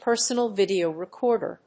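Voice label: "Personal Video Recorder", English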